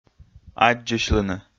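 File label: "аддзысьлыны", Komi, male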